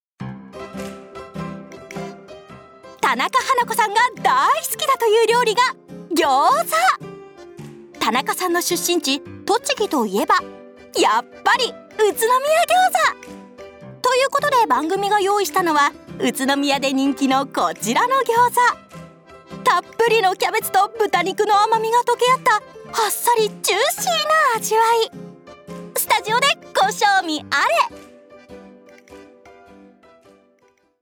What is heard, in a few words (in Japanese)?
高音元気明るいバラエティグルメナレ; 「宇都宮餃子」